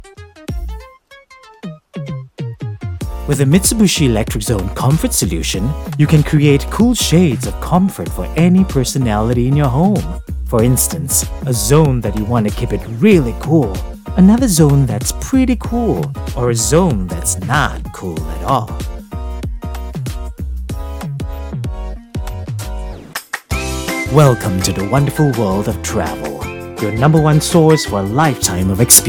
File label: English Commercial Voicereel